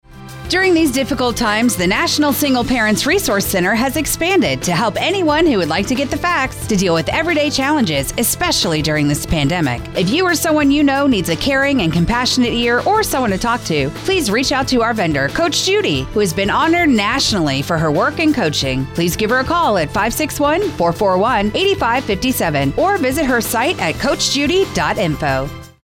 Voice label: Commercial